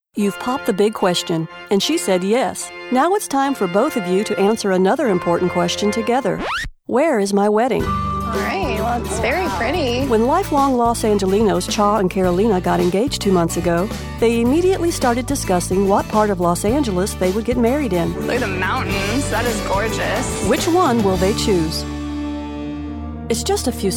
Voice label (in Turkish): Amerikan Ingilizcesi Kadin; Amerikan İngilizcesi Seslendirme